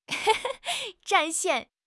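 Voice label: "zhan4 xian4", Mandarin Chinese; HSK4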